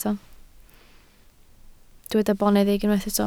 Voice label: "boneddig", Welsh

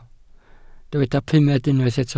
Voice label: "pumed", welsh

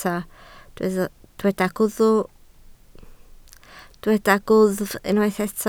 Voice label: "gwddf", Welsh

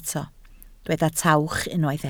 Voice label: "tawch", Welsh

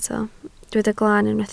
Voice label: "glaan", Welsh

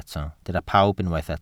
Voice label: "pawb", Welsh

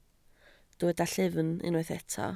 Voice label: "llyfn", Welsh

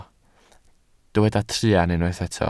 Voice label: "truan", Welsh

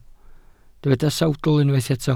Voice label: "sawdl", Welsh

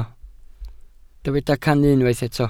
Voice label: "cannu", Welsh

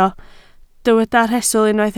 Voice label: "rhestl", Welsh

